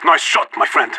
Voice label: nice shot, vo agents; leet